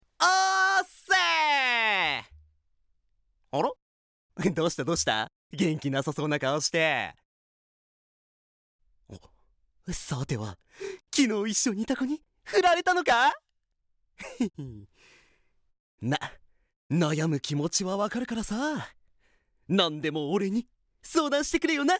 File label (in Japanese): お調子者